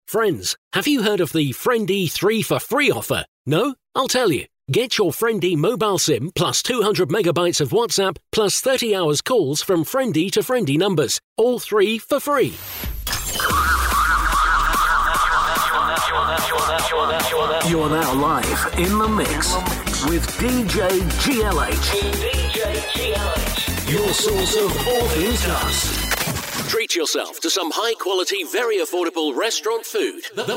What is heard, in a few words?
Commercials